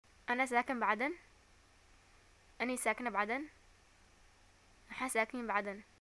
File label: i live in aden, arabic